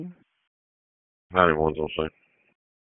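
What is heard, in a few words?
Public Safety Tactical, p25; wake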